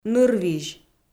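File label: "нырвизь", Komi, female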